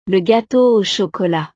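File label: "le gateau au chocolat", French; snacks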